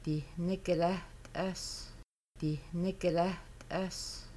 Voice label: They will fry chicken, Kaska; Making Bannock